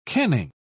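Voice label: "kenning", British English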